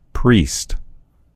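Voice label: "priest", American English; gcompris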